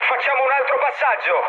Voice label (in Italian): bomb failure, mood med, speech it; War Thunder